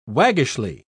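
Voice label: "waggishly", British English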